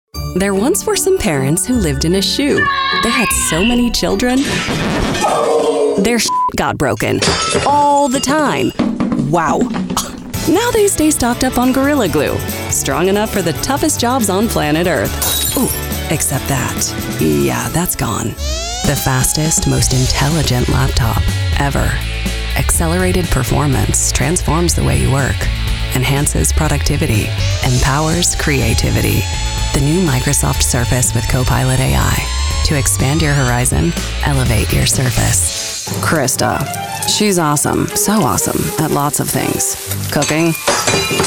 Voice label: Commercial